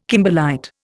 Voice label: "kimberlite", Indian